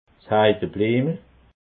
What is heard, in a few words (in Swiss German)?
"heidebliemel", alsace